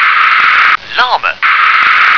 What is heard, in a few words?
"llama", English